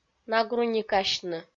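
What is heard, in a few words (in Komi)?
"нагруникасьны", Komi, female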